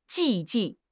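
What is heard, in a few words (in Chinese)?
"寂静", Chinese; HSK6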